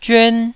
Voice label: "zyuan1", taiwanese